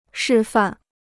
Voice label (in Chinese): "示范", Chinese